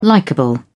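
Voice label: "likable", English, British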